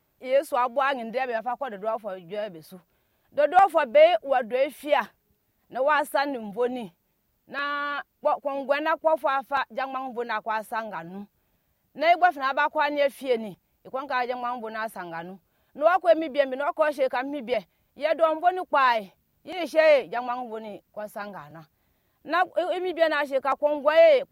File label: Anufo